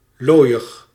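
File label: "looiig", Dutch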